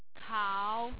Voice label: "kao3", Hong Kong tone